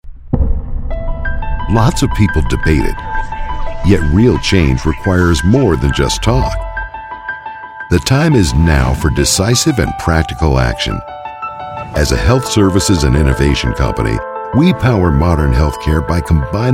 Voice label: Narration